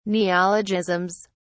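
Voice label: "neologisms", British English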